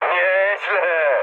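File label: trick mood high, speech Polish; War Thunder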